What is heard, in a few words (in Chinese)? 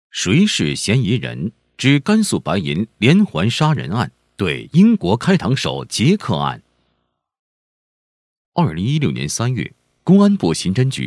案情讲述 稳重磁性, 男; 谁是嫌疑人